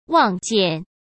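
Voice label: "望见", Mandarin Chinese; hsk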